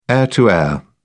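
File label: "air to air", British English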